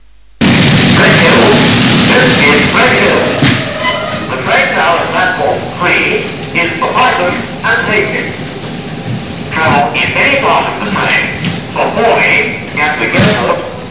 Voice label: Redhill pre recorded platform announcement; year 1988

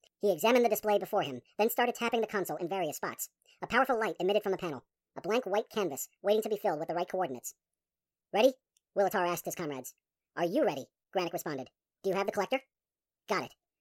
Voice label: audiobook; xcelsior

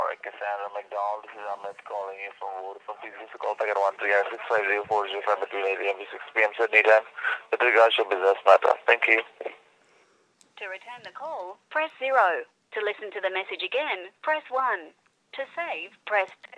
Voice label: voicemail

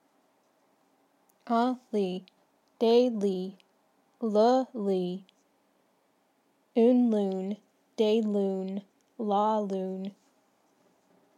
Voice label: french

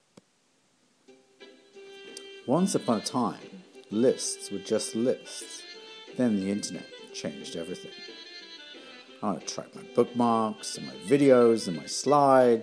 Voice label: voiceover

